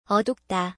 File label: "eodupda", Korean word